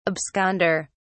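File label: "absconder", English, British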